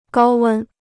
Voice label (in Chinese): "高温", Chinese